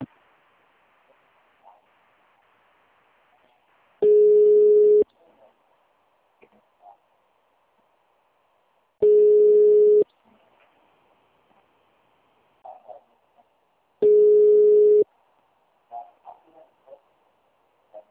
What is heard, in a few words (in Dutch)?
Voicemail huis